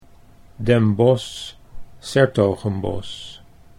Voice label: Den Bosch s Hertogenbosch, Dutch